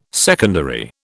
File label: "secondary", British